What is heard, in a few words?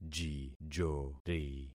"jijori", concat syllables; Affixing experiment